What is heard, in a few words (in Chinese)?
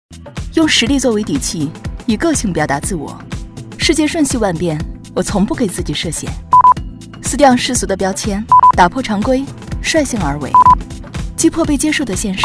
女 独白 时尚达人